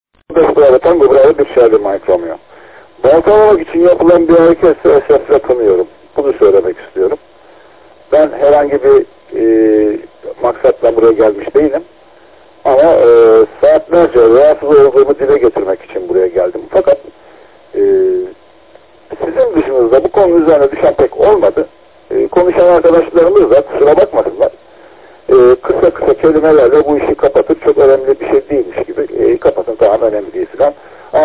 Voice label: QSO; KONFERANS ODASINA SATASMALAR